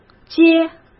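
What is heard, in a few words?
"皆", Mandarin Chinese; chinese flashcards; hsk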